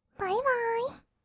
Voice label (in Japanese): "バイバーイ", kawaii voice; ASMR; 挨拶; 1200 kawaii voice!!